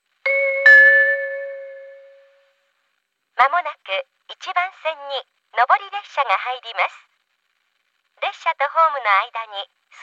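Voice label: platform 3 approaching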